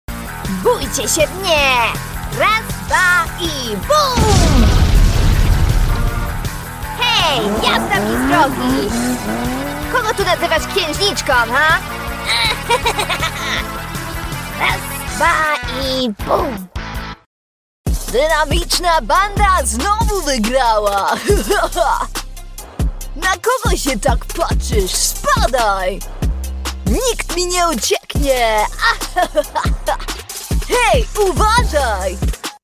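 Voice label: Polish, Characters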